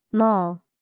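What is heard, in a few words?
"mole", English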